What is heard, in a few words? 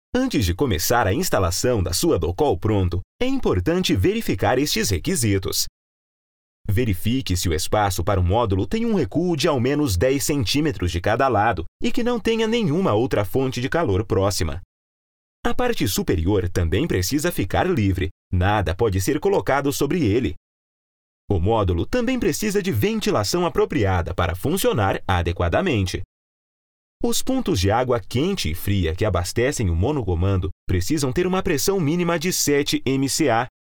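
Brazilian Portuguese, male, e-learning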